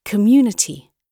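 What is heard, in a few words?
"community", British English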